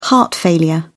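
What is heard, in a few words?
"heart failure", British English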